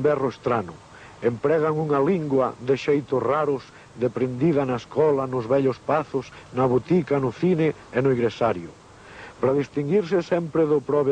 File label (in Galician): poesia castrapo recitada; poesia na noite